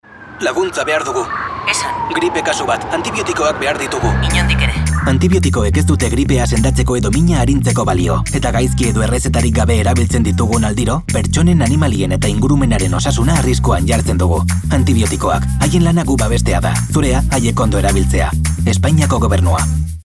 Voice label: basque political ads

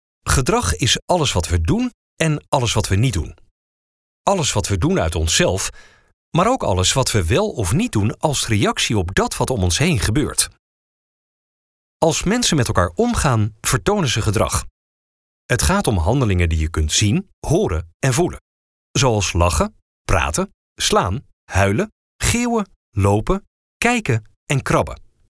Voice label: dutch e-learning

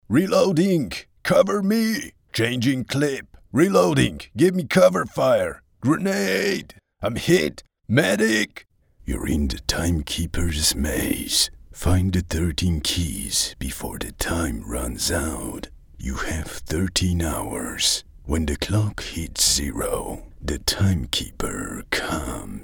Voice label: english video games

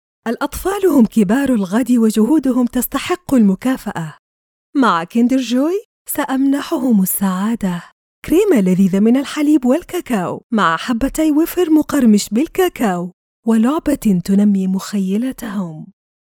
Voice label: arabic commercial

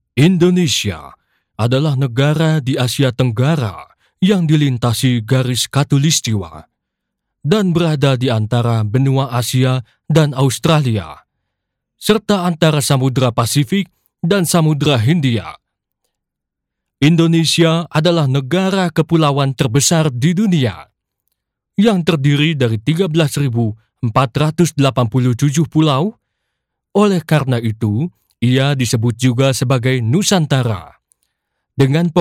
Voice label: indonesian narration

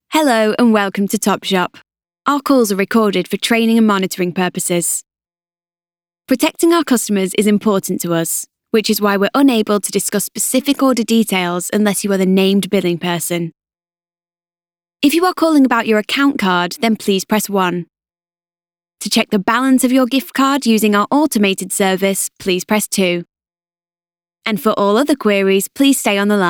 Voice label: english british ivr

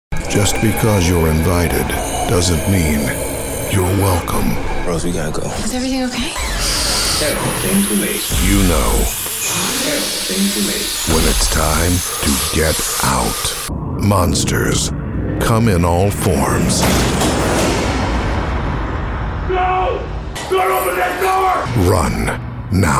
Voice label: english international movie trailers; actor experimentado de voz y cámara

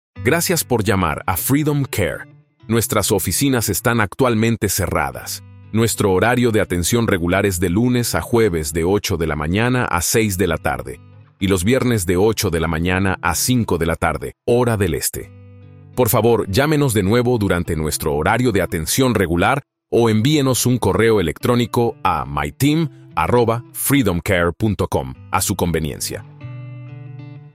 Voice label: spanish latin american ivr

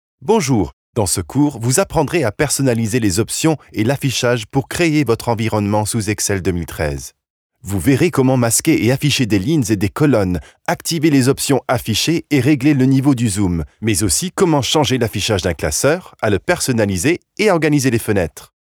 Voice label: French e-learning

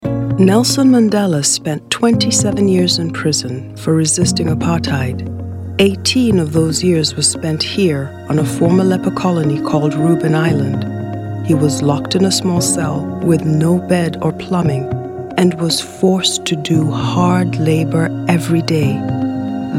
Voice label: english african documentaries

